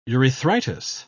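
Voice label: "urethritis", British English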